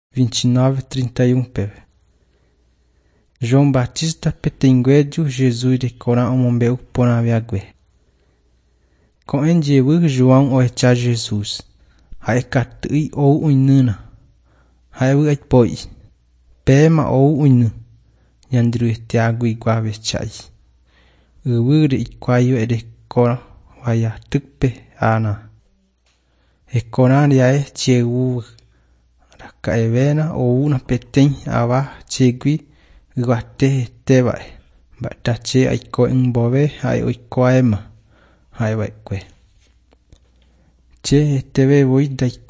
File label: john guarani mbya, bible